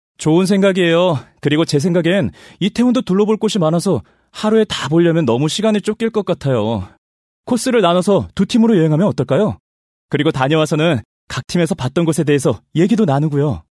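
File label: Korean, male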